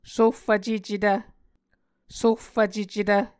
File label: "sohfvcecetv", Muscogee